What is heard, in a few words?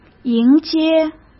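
"迎接", Mandarin Chinese; chinese flashcards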